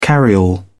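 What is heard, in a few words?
"carryall", English, British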